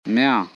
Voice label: "Mya", Korku

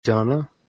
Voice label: "Jana", Dogri

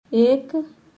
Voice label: "Ek", Halbi

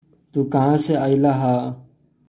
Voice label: Tu kahan se aayalah haa?, Bhojpuri

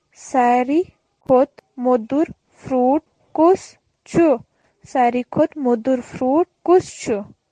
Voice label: Kashmiri; Which is the sweetest fruit?; Saryi khot modur fruit kus hiu

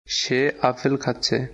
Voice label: Bengali, Bangladeshi Accent; Se aapel kachche.

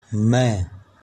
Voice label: "Me", Haryanvi

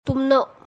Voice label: "Tumne", Khandeshi (Ahirani)

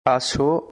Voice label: Bengali, Bangladeshi Accent; Come(You come)

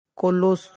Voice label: "Kolos", Kurukh; Will open

